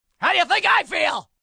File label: How Think I Feel, Attitude, male, annoyed; (human voice speaking); Talking Sound Effects; Sound Effects:Voice; VoiceBox